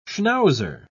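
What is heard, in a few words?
"schnauzer", British English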